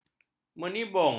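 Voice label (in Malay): "menibung", Malay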